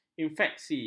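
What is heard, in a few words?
"infeksi", Malay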